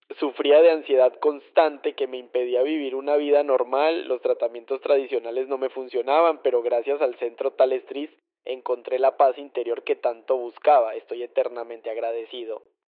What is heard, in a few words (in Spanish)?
Hombre